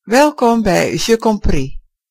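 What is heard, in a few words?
welcome, Brazilian Portuguese; gcompris-data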